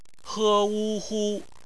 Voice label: "hu", Chinese; phonetics; bpmf